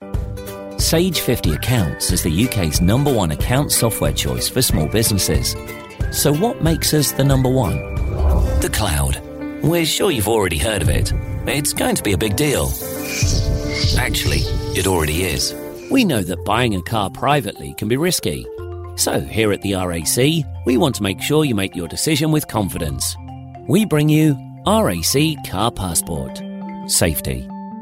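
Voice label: English UK, Narrative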